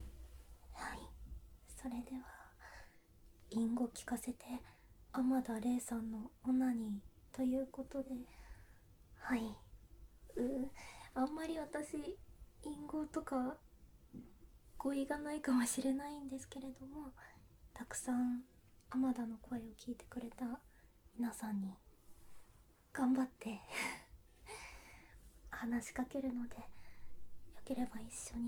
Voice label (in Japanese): 淫語オナニー カウント ステレオ